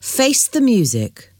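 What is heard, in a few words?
"face the music", English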